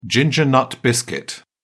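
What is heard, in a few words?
"ginger snap", British English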